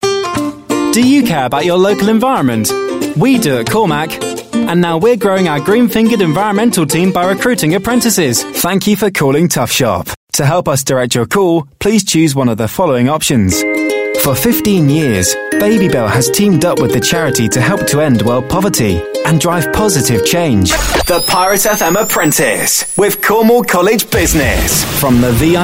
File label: UK English